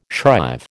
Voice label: "shrive", American